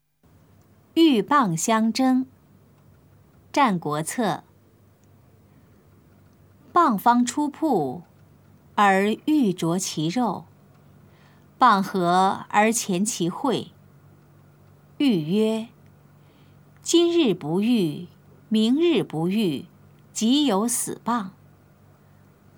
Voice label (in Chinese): putonghua; 鷸蚌相爭; 普通話